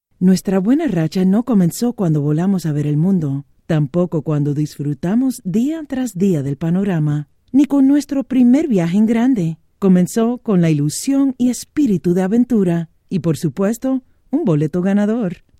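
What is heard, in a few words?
Spanish, narration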